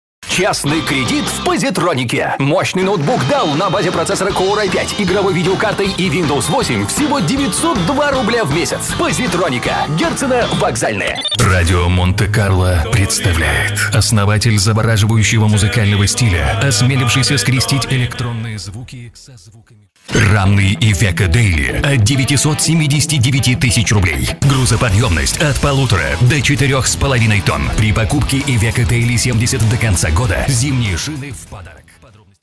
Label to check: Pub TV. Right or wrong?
Wrong